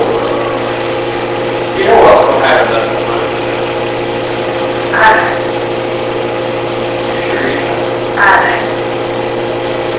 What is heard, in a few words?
Basement Unexplained Female Voice (Cuss) Amplified